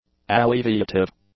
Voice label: "alleviative", English; adjectives

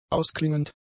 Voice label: "ausklingend", German; adjectives

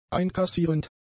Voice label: "einkassierend", German; adjectives

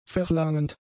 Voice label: "verlangend", German; adjectives

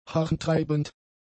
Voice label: "harntreibend", German; adjectives

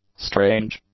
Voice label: "strange", English; adjectives

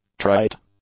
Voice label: "trite", English; adjectives

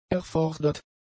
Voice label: "erfordert", German; adjectives

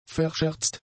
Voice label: "verscherzt", German; adjectives

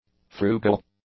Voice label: "frugal", English; adjectives

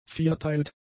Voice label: "vierteilt", German; adjectives

